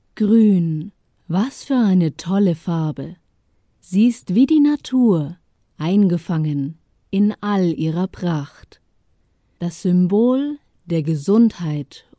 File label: Company presentation GERMAN; Uzuma